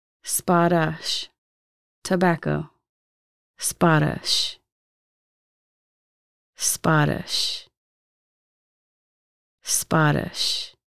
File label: tobacco "sbadəs", Lushootseed